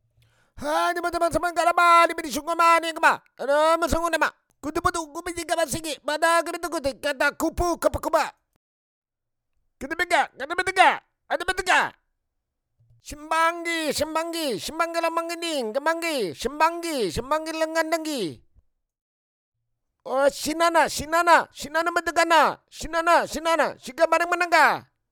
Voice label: Crazy Native Talk